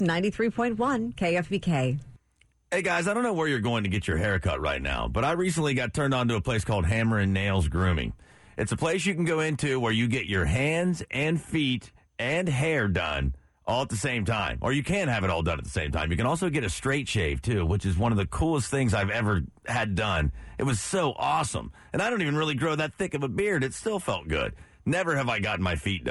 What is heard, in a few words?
radio spot